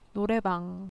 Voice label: karaoke room, korean